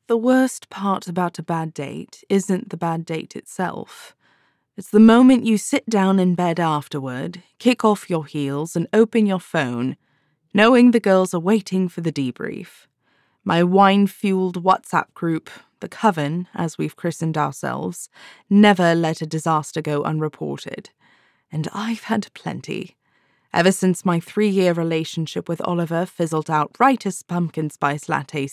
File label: British Narration; Red Flags